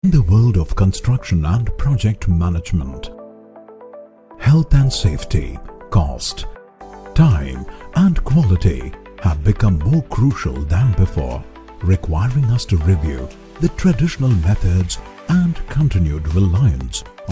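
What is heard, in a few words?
ENGLISH CORPORATE, Neutral male voice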